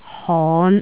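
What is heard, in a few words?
"hon5", Jyutping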